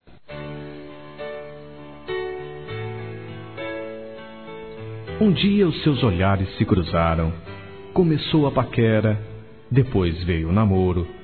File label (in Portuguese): PARABÉNS CASAL, Telemensagens; Parabenização